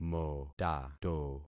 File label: "motato", concat syllables; Affixing experiment